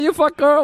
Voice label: voice, asian voices